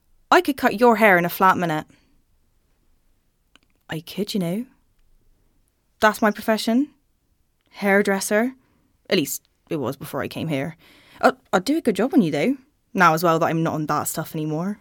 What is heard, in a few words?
Audiobook, regional accents